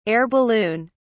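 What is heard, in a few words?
"air balloon", British English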